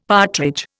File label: "partridge", Indian; Dictionary